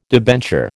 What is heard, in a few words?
"debenture", American